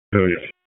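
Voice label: FIRE Operations, p25; wake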